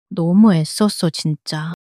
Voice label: sadness, monologue